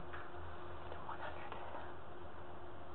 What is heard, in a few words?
Don't mumbling